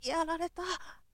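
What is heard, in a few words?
boy, "yarareta"; shonen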